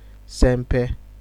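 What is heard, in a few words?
"sempe", Nigerian Pidgin, male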